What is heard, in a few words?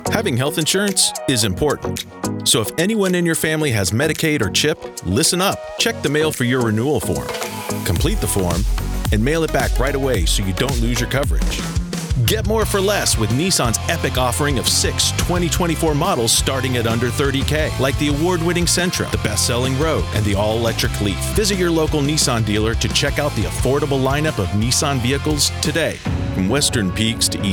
Commercial